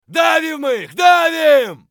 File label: bear battlecry; eft